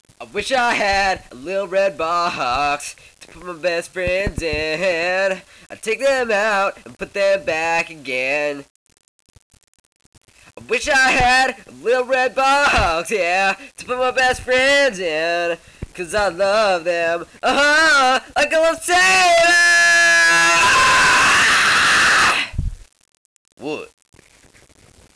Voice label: remix Voice